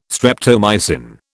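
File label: "streptomycin", British English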